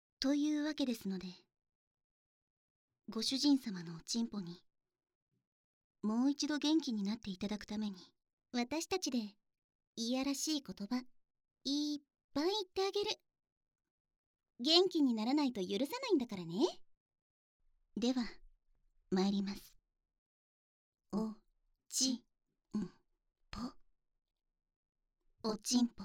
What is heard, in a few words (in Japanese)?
二人で左右から淫語連呼